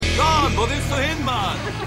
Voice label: Mann; Keine Gnade Fuer Dad

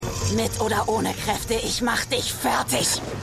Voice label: Weibliches Metawesen, Stimmproben; The Flash

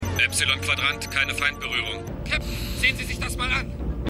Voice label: Funkstimme Stimme Beim Captain; Outer Limits

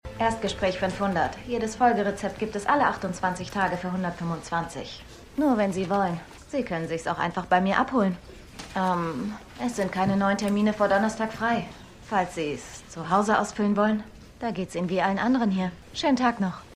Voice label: Empfangsdame; Dopesick

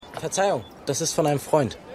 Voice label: Jugendlicher, Stimmproben; Revolution

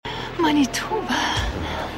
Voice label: Frau In Taxi; AusgerechnetAlaska

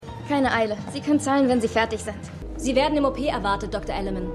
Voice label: Kellnerin Krankenschwester

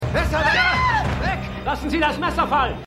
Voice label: Polizist, Stimmproben

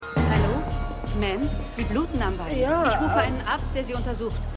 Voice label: Fluglinienangestellte, Stimmproben; The Flight Attendant

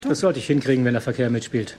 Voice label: Sportreporter; Shining Girls

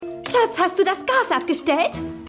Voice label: Frau; Pushing Daisies

